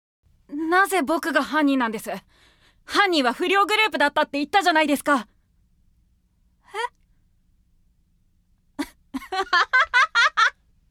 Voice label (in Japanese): 外画 上品嫌味おばさん